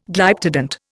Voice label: "Glyptodont", Indian

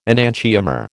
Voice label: "enantiomer", American

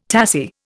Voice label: "tassie", Indian

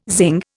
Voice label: "zing", Indian

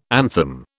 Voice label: "anthem", British

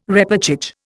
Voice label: "repêchage", Indian

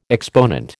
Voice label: "exponent", American

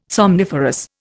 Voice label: "somniferous", Indian

